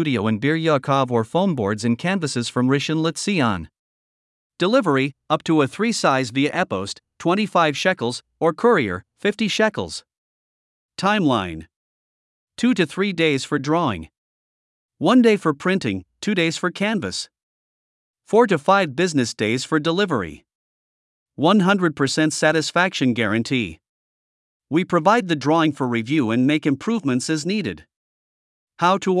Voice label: voice template caricature